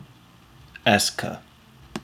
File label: "est ce que", US English